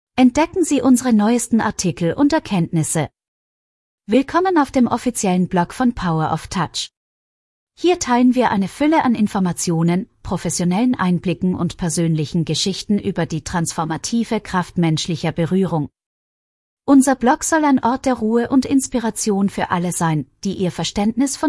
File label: text to voice; einblicke power of touch